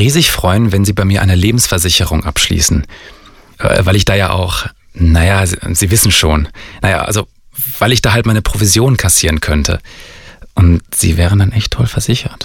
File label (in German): Versicherung Werbung